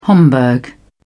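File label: "homburg", British English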